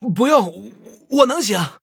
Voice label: Male Human Attack, zh

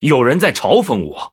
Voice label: Male Blood Elf ERROR TAUNT, zh

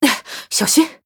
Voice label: Oops, zh

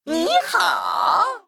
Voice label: Male Mech Well Played; zh

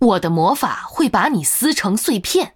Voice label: Threaten, zh

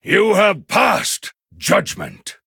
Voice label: Male Human Well Played; en

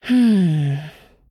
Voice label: Female Human Thinking, zh

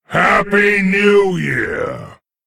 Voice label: Male Lich HAPPY NEW YEAR LUNAR; en

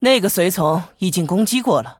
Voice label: Female Human ERROR MINION ATTACKED, zh

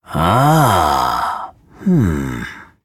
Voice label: Male Troll Thinking, zh

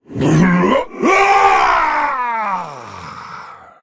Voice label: Male Lich Death, zh

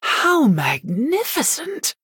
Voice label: Female Human WOW; en

